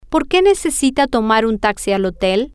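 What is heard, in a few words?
whyDoesHeNeedToTakeATaxiToTheHo, Spanish, female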